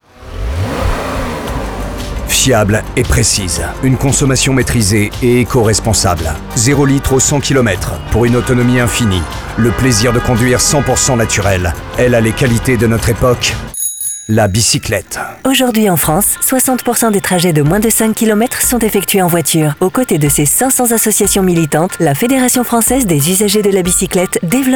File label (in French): Spot radio; FUB